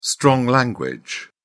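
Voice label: "strong language", British English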